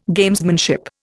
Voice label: "Gamesmanship", Indian

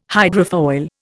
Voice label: "hydrofoil", Indian

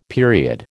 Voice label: "period", American

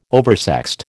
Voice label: "oversexed", American

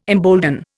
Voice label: "embolden", Indian

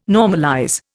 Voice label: "normalize", Indian

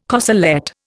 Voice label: "corselette", Indian

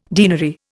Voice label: "deanery", Indian

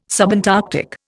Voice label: "subantarctic", Indian

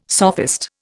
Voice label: "sophist", Indian

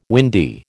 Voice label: "windy", American

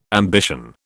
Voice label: "ambition", British

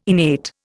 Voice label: "innate", Indian

